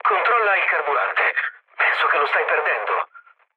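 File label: Italian tank damaged mood low, speech; War Thunder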